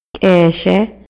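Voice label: Maya, male; RELACIONES POLITICAS Y SOCIALES; INALI; MayaYuc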